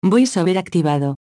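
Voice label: Latin American Spanish VoiceOver On; EFI Audio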